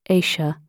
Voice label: "Asia", English